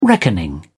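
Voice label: "reckoning", British English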